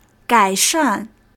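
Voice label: "gaishàn", Mandarin Chinese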